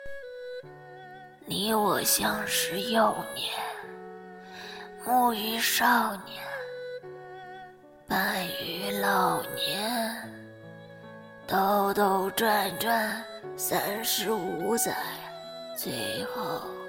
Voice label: 老年音

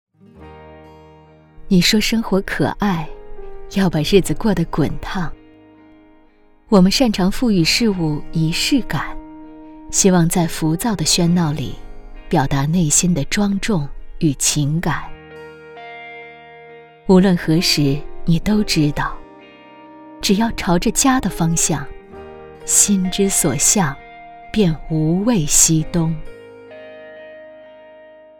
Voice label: 旁白温柔; 朝着家的方向

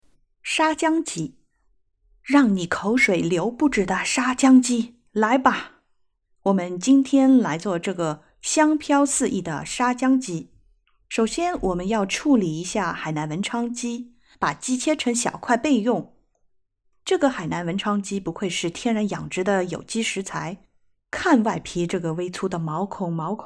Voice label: 美食纪录片

